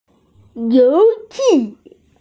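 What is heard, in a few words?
"you2xi4", female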